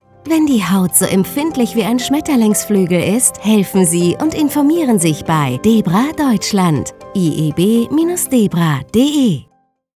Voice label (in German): Funkspot; IEB-E.V.; Debra Deutschland